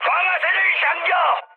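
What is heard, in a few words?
Korean attack position mood high, speech; War Thunder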